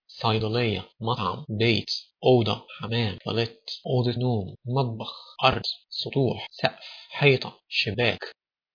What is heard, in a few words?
egyptian